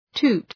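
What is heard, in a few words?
"toot", English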